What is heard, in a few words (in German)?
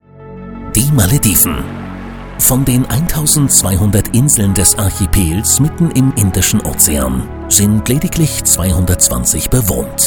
Doku; Malediven